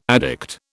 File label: "addict", British